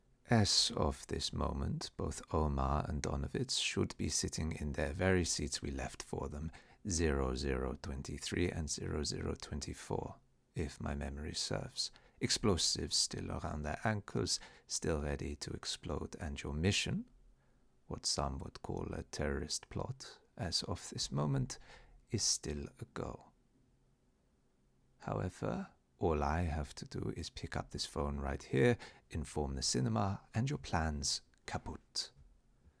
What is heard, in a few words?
German Frankfurt Accent Sample